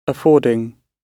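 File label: "affording", British English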